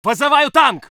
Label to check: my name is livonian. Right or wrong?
Wrong